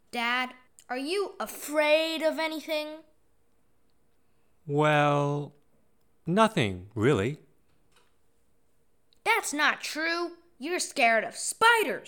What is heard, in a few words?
emotions dialog